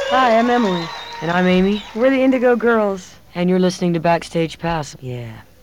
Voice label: station id

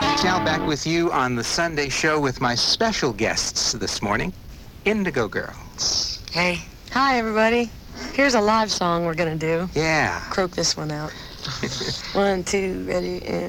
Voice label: interview; los angeles night

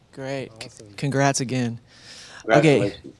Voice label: announcer; sozo bear presents - a night at the movies - youtub

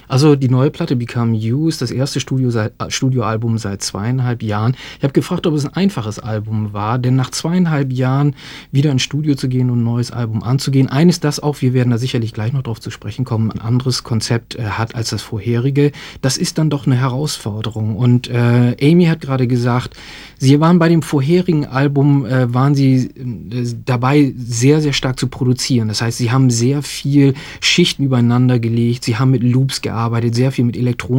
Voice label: german translation

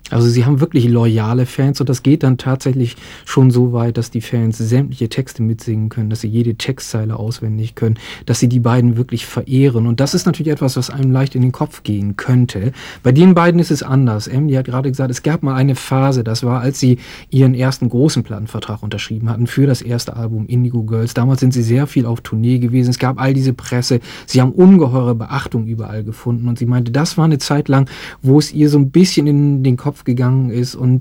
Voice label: german translation

